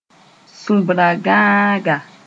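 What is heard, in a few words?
"sũbragãaga", Mooré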